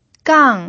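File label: "gang", Mandarin Chinese, fourth tone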